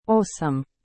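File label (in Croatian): "osam", Croatian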